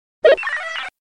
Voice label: combine Radio

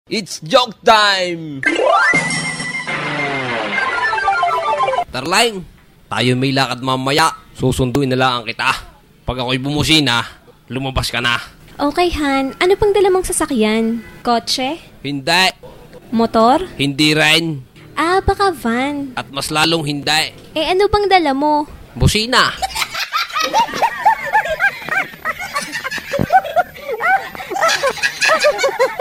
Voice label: batangueno comedy

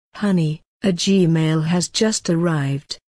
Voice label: british gmail girl; ringtones

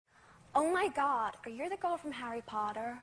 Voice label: american accent; mma watson

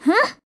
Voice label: Angry; Zelda

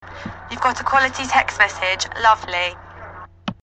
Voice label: british

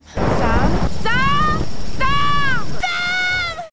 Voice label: yelling; Lara

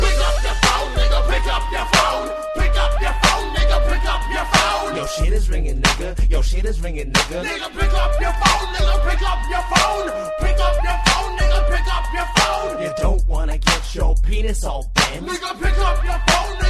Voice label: pick up yo phone, hip hop ringtone

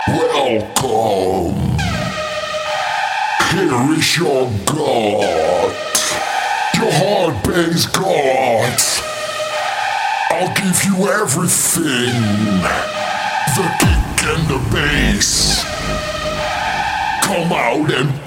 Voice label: Creepy Voice

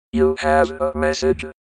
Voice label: robot voice; ringtones; sms

